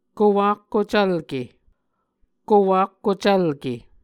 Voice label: "kowakkucvlke", Muscogee; Mvskoke Words with Repeated Consonants; Martin, Jack B., and Margaret McKane Mauldin. 2023. A Dictionary of Creek/Muskogee

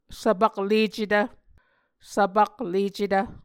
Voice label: "svpaklecetv", Muscogee

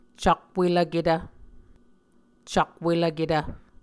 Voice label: "cakwelvketv", muscogee

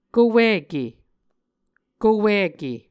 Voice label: "kowike", Muscogee; A Dictionary of Creek/Muskogee; SIL International; Onvkv Ohhvyayices

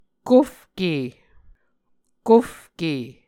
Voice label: "kofke", Muscogee

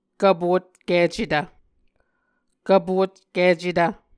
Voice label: "kvpotkicetv", Muscogee

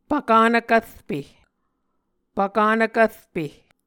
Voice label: "pvkanv kvrpe", Muscogee

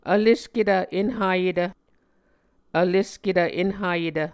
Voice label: "vlesketv enhayetv", Muscogee

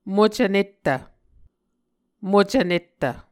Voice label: "mocv nettv", Muscogee

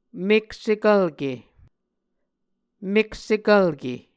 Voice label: "meksvkvlke", Muscogee

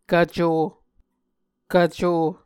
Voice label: "kvco", Muscogee

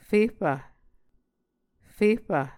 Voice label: "fehpv", muscogee

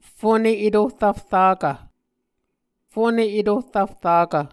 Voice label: "foneetohrvfrakv", muscogee

